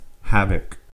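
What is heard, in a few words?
"havoc", US English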